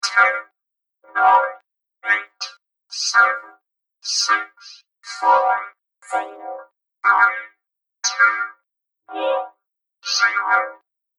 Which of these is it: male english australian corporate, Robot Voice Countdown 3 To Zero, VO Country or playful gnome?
Robot Voice Countdown 3 To Zero